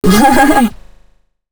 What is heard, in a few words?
female c# 138, Dance Ultra Voice; Mutekki Media